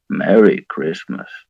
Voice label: merry christmas, bear; sven; santa bear

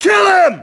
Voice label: alert, grunt; nimble grunt

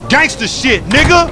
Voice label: Cheer; Lamar Davis

